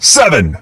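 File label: "Seven", English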